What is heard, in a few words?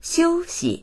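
"xiu1xi", Mandarin Chinese; xiūxi; 休息; 休憩する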